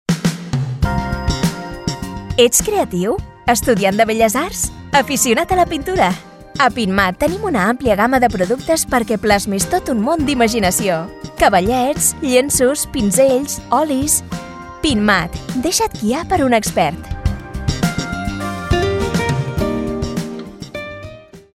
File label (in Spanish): CATALAN; BELLAS ARTES; pinturas andalucia